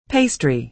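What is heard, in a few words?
"pastry", American English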